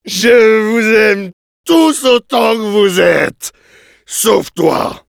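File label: Demoman cheers, French; Je vous aime… tous autant que vous êtes… sauf toi !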